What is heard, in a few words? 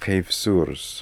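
"Khevsoors", pronunciations; Fourth Way; Beelzebub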